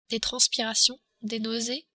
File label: "transpirations", French